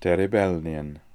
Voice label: "Terebelnian", pronounciations; Fourth Way; Beelzebub